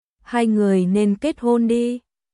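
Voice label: Vietnamese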